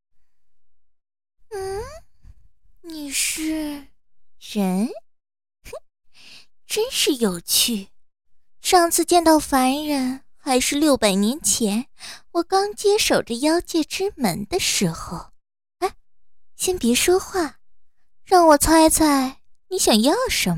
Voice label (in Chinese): 妖精台词配音